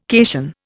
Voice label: "cation", Indian